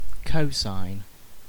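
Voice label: "cosine", British English; pronunciations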